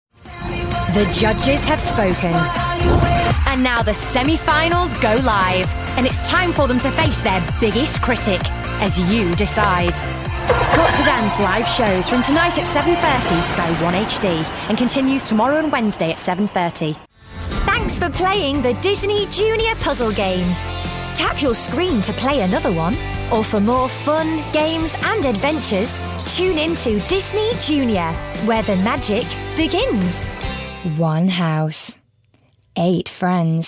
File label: Promos; stv